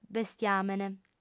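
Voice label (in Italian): "bestiamene", lingua sarda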